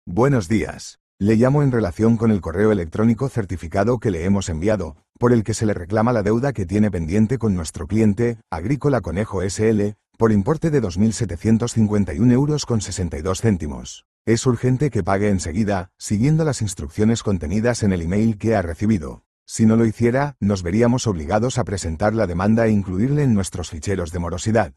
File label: audio call